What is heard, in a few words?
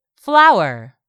"flower", English; 3000 words